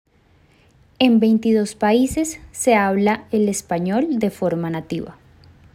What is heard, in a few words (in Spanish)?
Frase Femenina